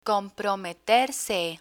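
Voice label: "comprometerse", Spanish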